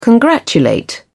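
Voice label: "congratulate", British English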